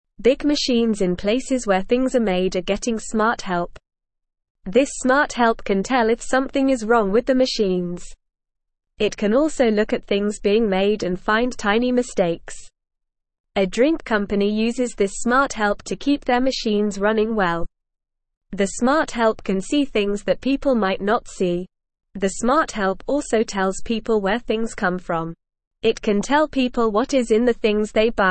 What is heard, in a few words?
English Newsroom Beginner NORMAL Reading; Smart Help Machines Get Smarter in Making Things